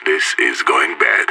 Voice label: scared emote; idf